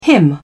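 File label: "him", British English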